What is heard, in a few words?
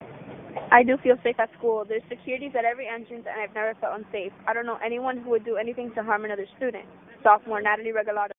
Audio message